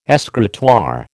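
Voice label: "escritoire", American English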